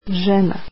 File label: woman, croatian